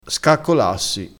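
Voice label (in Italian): "scaccolassi", dialettale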